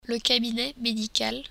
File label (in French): cabinet, french